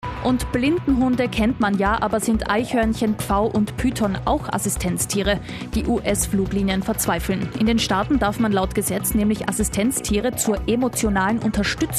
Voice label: Interview; Kronehit; Emotional Support Animals